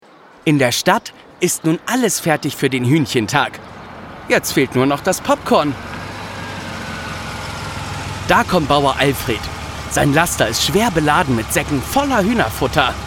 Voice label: Erzähler; Paw Patrol

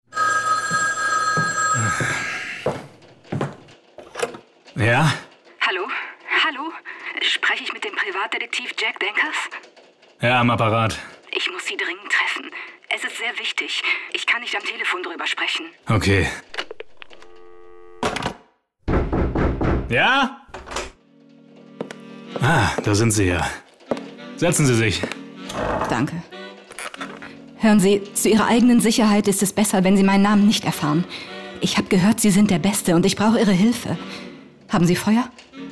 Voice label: Hoerspiel

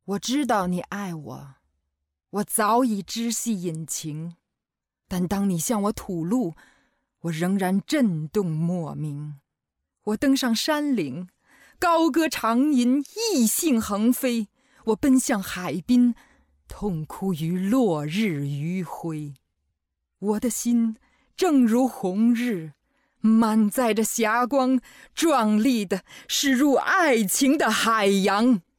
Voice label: chinesisch

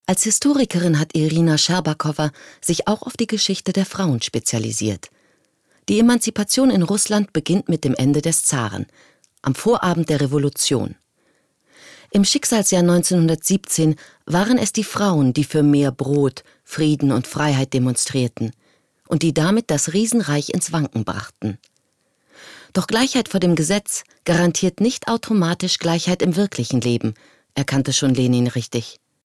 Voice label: Kommentar

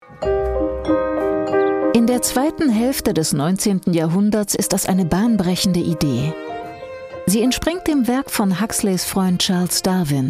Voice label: Kommentar; Luefte; ZDF Info; LOFT VOICES

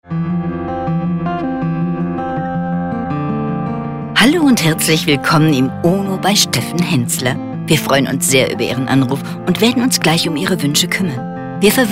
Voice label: Warteschleife; LOFT VOICES

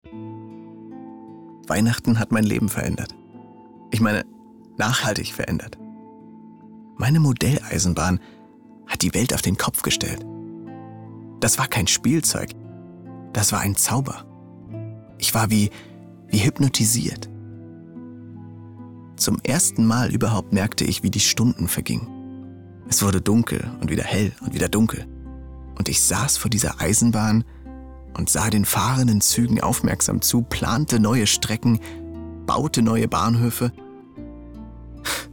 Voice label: erzaehlung kindheit; LOFT VOICES

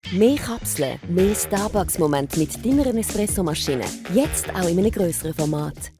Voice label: CH Deutsch; STARBUCKS BY NESPRESSO 36 Kapseln

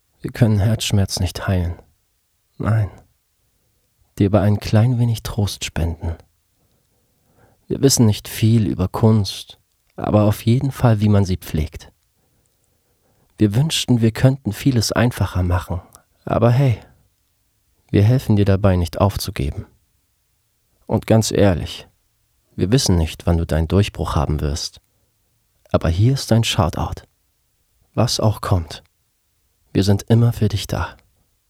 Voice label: Werbung emotional; LOFT VOICES

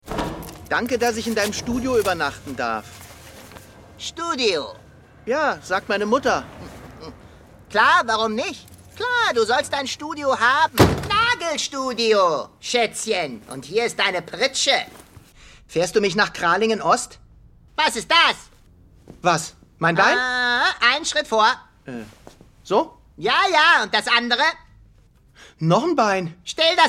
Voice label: synchron dialog 2stimmig; LOFT VOICES

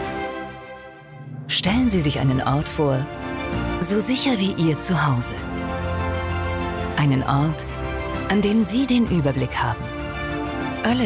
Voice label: Imagefilm Meine Gesundheit digital organisieren; Versicherungskammer Bayern

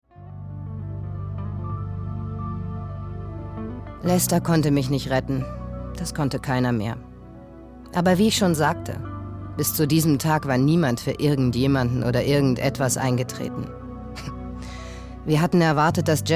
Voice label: synchron

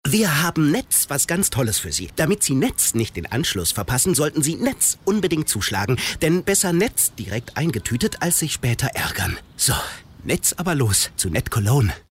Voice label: werbung off originell; netcologne

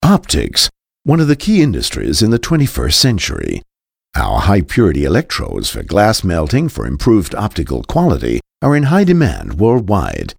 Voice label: uk englisch

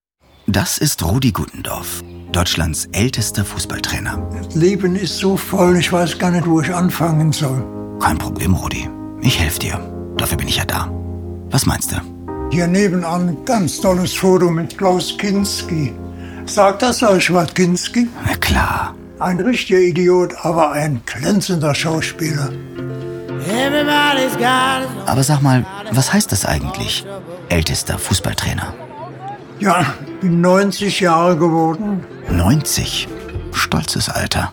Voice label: Sprachprobe off Socialspot; gutendorf

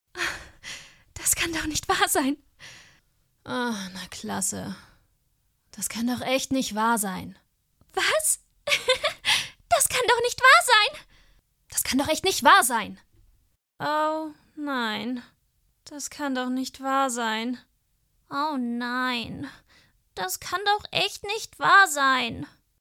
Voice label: Das kann doch nicht wahr sein, Unterschiedliche Stimmungen, Scene, Audio Drama (Hörspiel), German; voice

